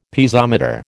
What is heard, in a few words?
"Piezometer", American